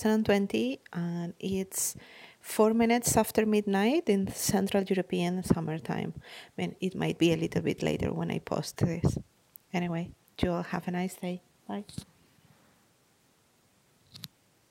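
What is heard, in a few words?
Female Verification Request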